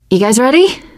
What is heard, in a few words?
ask ready, survivor voice teen girl; source engine; left 4 dead 2